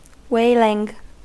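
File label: "wailing", American English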